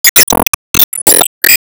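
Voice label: Voice Emo Surprise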